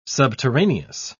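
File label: "subterraneous", British English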